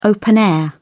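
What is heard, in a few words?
"open-air", British English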